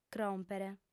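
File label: "cròmpere", female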